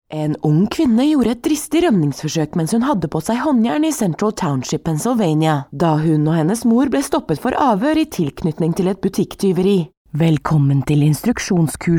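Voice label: Norwegian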